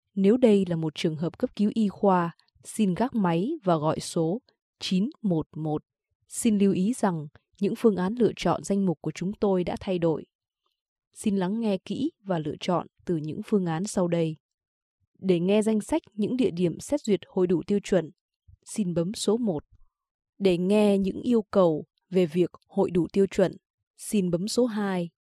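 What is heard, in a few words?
Vietnamese, female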